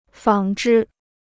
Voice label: "纺织", Chinese

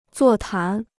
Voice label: "座谈", Chinese

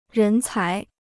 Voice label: "人才", Chinese

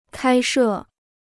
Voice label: "开设", Chinese

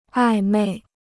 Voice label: "暧昧", Chinese

